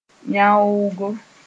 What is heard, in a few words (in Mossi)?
"yãoogo", moore